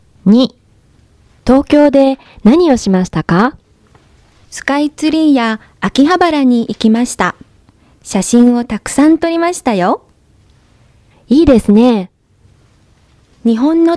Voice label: Conversation